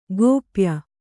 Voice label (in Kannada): "ಗೋಪ್ಯ", kannada